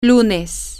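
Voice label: Monday, Spanish, female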